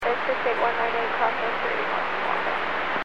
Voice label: Aviation 122.7; KSTE

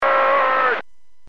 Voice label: Aviation 121.5 Emergency

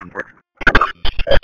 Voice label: Police Sheriff Dispatch

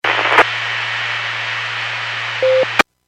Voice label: Skywarn 146.67; Stevens Point

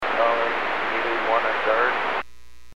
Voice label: Aviation 121.5 Emergency

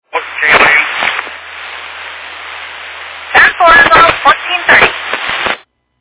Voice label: Fire Dispatch

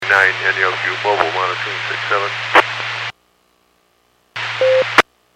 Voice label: Skywarn 146.67; Stevens Point

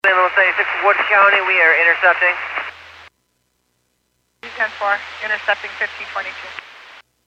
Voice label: Fire Dispatch

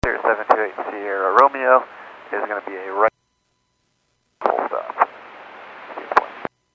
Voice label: Airports 122.7, Radio; KSTE

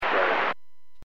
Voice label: Aviation 121.5 Emergency

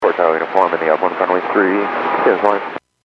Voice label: Airports 122.7, Radio; KSTE

